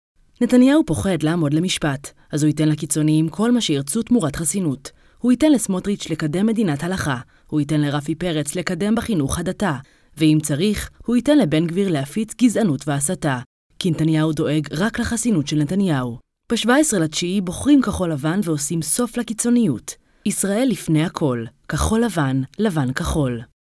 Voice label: hebrew political ads